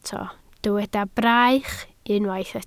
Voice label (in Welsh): "braich", Welsh